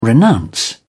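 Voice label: "renounce", English, British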